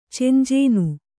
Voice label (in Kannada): "ಚೆಂಜೇನು", Kannada